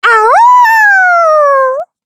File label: Casting, kr; Taily; kingsraid; voices heroes